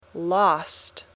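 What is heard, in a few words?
"lost", English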